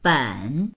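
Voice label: "ben3", Chinese; 本; ～冊（書籍・ノートを数える）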